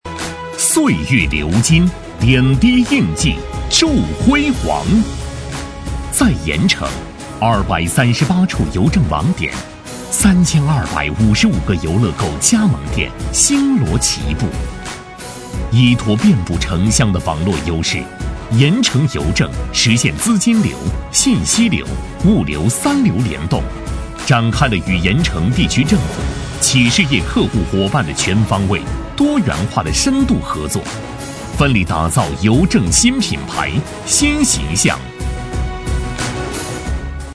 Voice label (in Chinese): 大气专题邮政